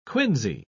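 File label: "quinsy", English, uk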